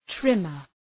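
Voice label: "trimmer", English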